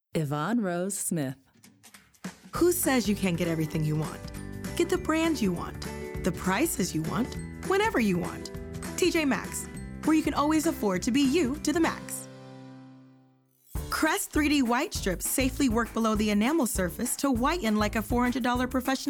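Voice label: commercial VO; Voice Over Reel; 2025 mfa acting showcase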